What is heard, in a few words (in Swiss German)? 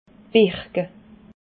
"birke", alsace